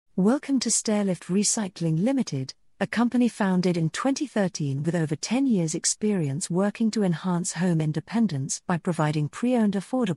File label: CORPORATE About us